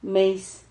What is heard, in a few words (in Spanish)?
"meis", Silabas